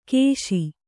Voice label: "ಕೇಶಿ", Kannada

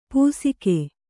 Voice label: "ಪೂಸಿಕೆ", Kannada

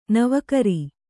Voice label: "ನವುಕರಿ", Kannada

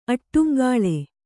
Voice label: "ಆಡುಂಗುಳಿ", kannada

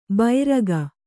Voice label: "ಬೈರಗ", Kannada

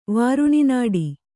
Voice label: "ವಾರುಣಿನಾಡಿ", Kannada

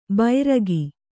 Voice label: "ಬೈರಗಿ", Kannada

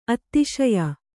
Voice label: "ಅತ್ತಿಶಯ", Kannada

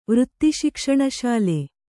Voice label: "ವೃತ್ತಿಶಿಕ್ಷಣಶಾಲೆ", kannada

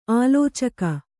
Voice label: "ಆಲೋಚಕ", Kannada; ālōcaka; noun; a thinker.

